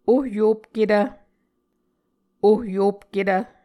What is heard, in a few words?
"ohyopketv", Muscogee